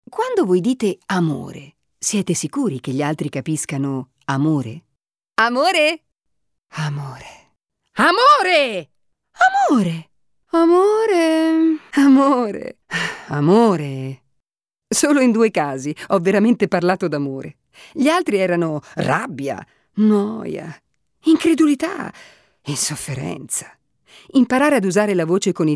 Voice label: spot PUBLIC SPEAKING

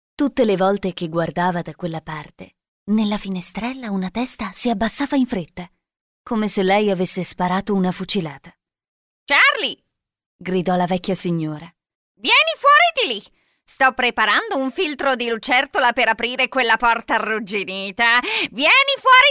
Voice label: audiobook

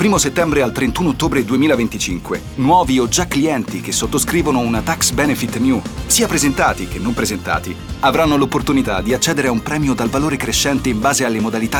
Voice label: corporate promo longevity

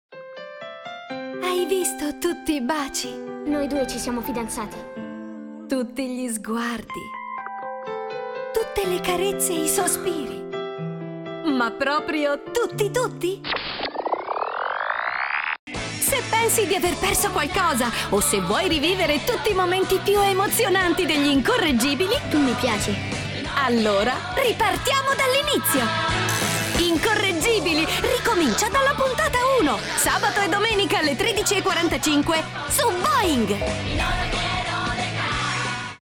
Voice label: TV Promo

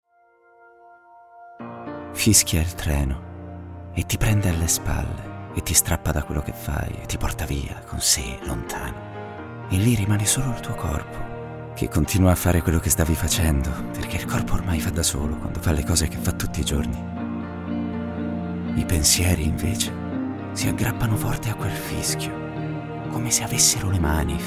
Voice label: dreamy warm soft

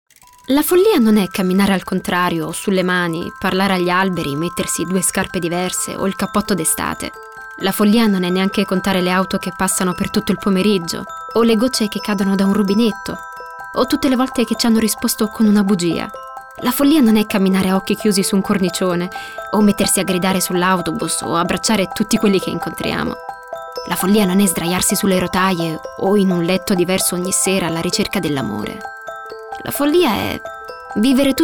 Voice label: Natural, Intimate; Follia

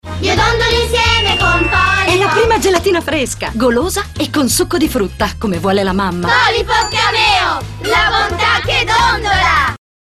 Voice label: Spot; Cameo PolyPop

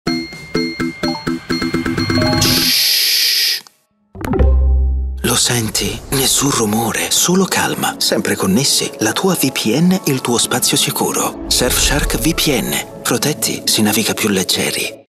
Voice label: sexy low voice; surfshark vpn

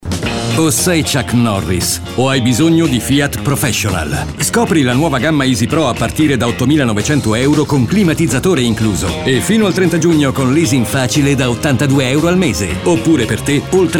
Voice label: radio; Fiat Professional Chuck

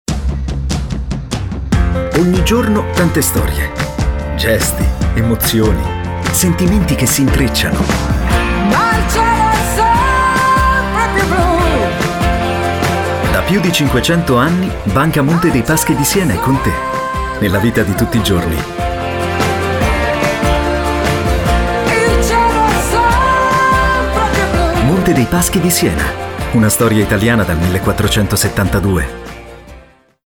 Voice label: radio; MPS

